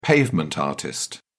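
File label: "pavement artist", British English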